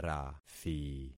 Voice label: "rathi", concat syllables; Affixing experiment